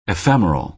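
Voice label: "ephemeral", American English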